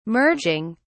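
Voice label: "merging", British English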